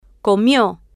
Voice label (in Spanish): "co-mio", Spanish